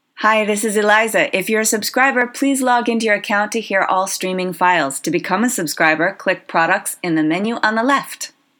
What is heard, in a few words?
Belfast Monologue Male